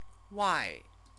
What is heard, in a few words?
"wai", Chinese; CTCFL; University of Oxford